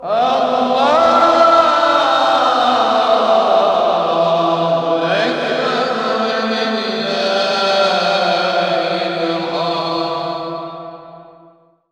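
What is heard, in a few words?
voice, Foreigns; Sound & Vision; Gigapack II CD 2 (Roland)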